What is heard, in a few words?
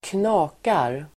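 "knakar", Swedish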